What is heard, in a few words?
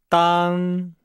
"dang", Nihao